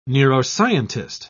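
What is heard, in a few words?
"neuroscientist", British English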